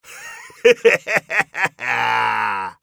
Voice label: scav mutter, voice; Escape from Tarkov; scav4

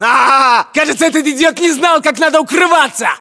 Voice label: inform killfirm infantry, battlechatter ru

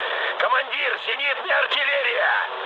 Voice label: damaged aa, mood med, speech ru; War Thunder

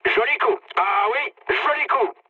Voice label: bomb success mood med, speech fr; War Thunder

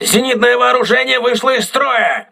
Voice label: aa turret damaged, crew ships russian

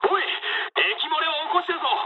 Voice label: damaged water mood med, speech jp; War Thunder

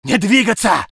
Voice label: voice sov infantry

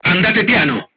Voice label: voice message drive slowly, crew tanks italian

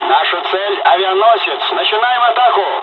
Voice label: dialog, dialogs russian; us midway; War Thunder

